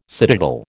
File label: "citadel", American